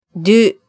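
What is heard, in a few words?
"duu", Japanese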